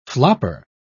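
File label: "flopper", British English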